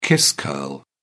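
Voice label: "kiss curl", British English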